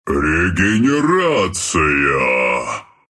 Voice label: regen, ru; Treant; dota2

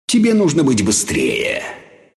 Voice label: deny, ru; dota2

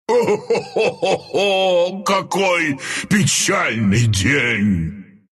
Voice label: death, ru; dota2

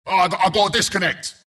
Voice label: announcer disconnect; bristleback

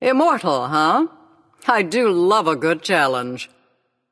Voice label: Taunt Directed; Smite; Iron Conqueror Hera; Achilles

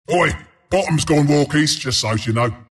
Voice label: announcer lane missing; Dota 2; bristleback announcer

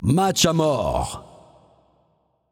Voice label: Announcer French Mode DeathMatch; Paladins

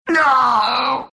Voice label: pain, ru; Dota 2

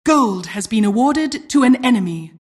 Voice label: announcer generic alert; dota2

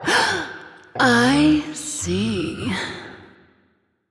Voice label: Stunned Or Frozen; Paladins; Seris

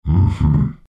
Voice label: acknow, zh; Dota 2

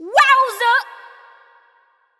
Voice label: Announcer; Paladins

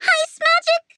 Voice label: Stunned Or Frozen; Rider; Paladins

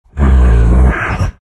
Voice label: bear form anger

